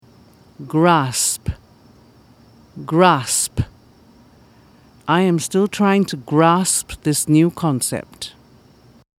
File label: grasp, pronunciation